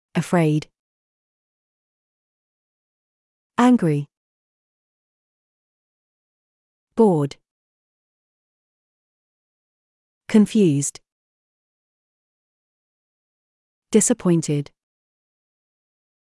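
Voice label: British; emotions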